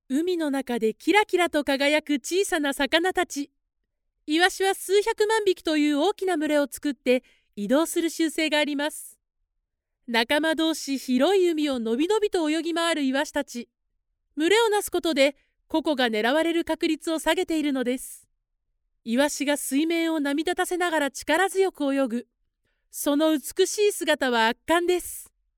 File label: female japanese voice over upbeat